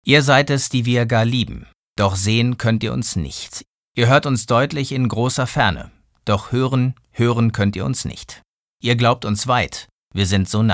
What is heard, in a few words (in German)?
sachlich, maenner